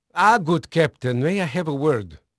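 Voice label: Dutch male, voice; Pirates Of The Caribbean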